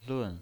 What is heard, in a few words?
"lun4", pinyin